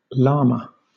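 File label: "llama", British English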